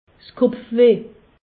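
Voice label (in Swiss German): "kopfweh", Alsace, Haut Rhin; medecine; mal de tête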